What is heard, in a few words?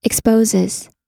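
"exposes", US English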